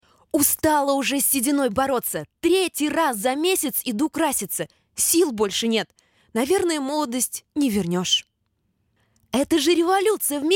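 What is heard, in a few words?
Russian, female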